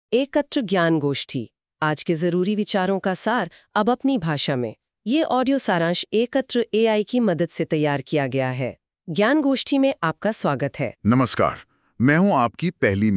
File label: Hindi; Dada